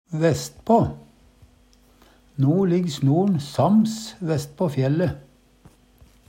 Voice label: vesspå, Numedalsmål; vest på; No ligg snor'n sams vesspå fjælle.; Adverb

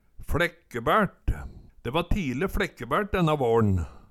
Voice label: fLekkebært, Numedalsmål; De va tile fLekkebært denna vårn

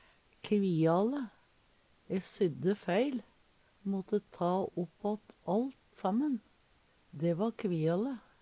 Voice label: "kviale", Numedalsmål

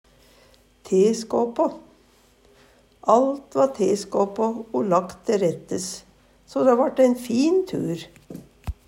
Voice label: teskåpå, Numedalsmål; Adjektiv; Alt va teskåpå o lagt te rettes, so dæ vart ein fin tur.

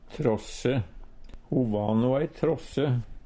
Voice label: "tråsse", Numedalsmål

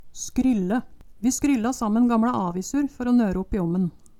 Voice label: skrylle, Numedalsmål

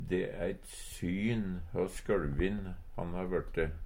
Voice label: "sjøLvin", Numedalsmål; De e eit syn hø sjøLvin han ha vørte.; Adjektiv

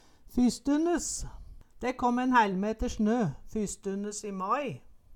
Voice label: "fysstunnes", Numedalsmål; De kåm en hællmeter snø fysstunnes i mai

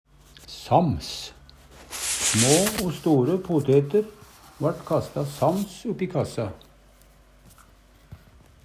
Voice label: sams, Numedalsmål; felles; Adverb

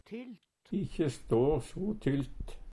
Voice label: "tylt", Numedalsmål; stå heilt utpå kanten; Ikkje stå so tylt.; Adverb

